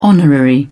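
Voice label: "honorary", British English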